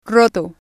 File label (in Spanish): "Roto", spanish